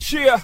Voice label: "yeah" sheah, voice